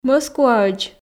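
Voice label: "мӧскуаӧдз", Komi, female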